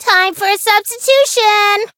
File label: Voice variable chant; soira